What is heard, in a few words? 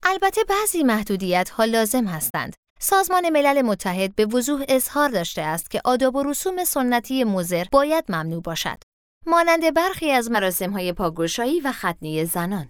Farsi VO